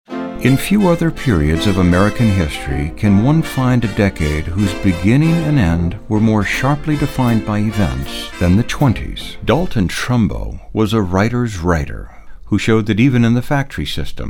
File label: Narration